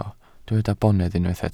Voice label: "bonedd", welsh

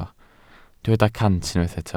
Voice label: "cant", Welsh

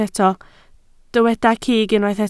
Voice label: "cig", Welsh

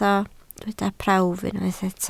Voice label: "prawf", Welsh

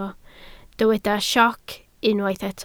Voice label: "sioc", Welsh

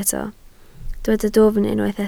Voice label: "dwfn", Welsh

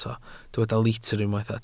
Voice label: "litr", Welsh

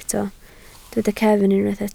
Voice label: "cefn", Welsh

